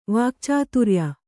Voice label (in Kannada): "ವಾಕ್ಚಾತುರ್ಯ", Kannada